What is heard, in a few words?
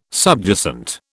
"subjacent", British